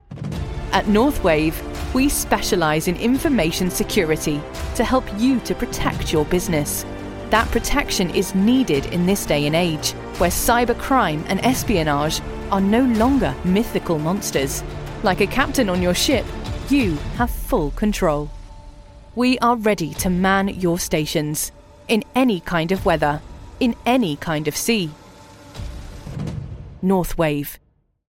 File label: english british documentaries